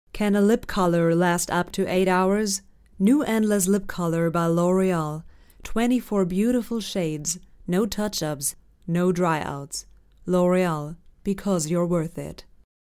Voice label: COMMERCIAL US; LOREAL